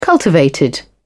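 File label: "cultivated", British English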